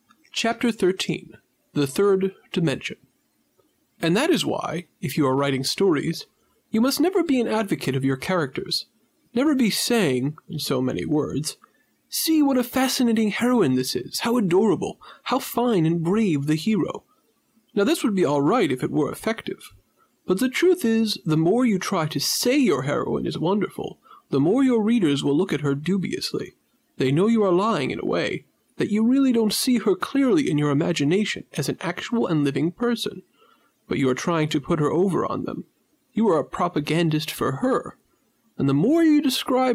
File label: Narrate; Crisp, professional